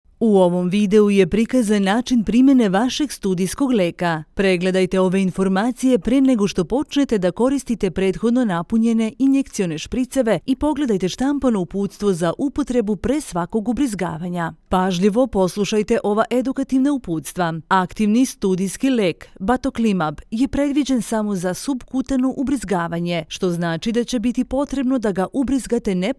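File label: Medical instruction Serbian VO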